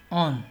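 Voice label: "on", Nigerian Pidgin, male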